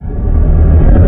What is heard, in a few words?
"prepare", cannon; hl2dm; serious sam